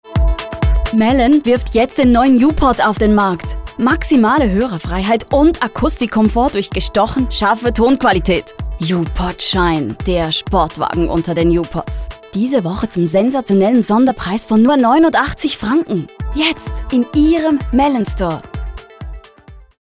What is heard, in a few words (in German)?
reisserisch